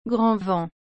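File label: "grand vent", French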